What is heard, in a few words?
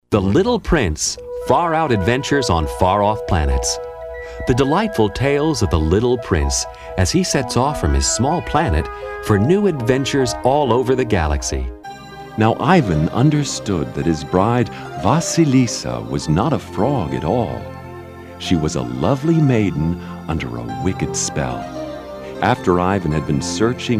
childrens